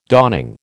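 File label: "dawning", American English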